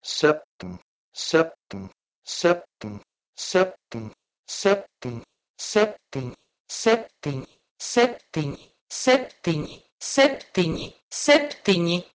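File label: Proto-Indo-European "septm" to Latvian "septini"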